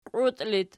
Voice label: "qiut", Archi